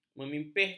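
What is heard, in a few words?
"memipihkan", Malay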